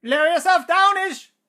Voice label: battle chatter